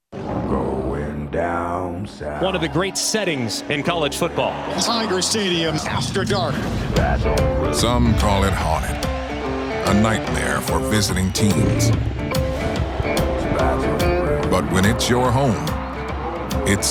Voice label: narration